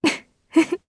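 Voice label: Vox Happy, Japanese; Tanya; kingsraid audio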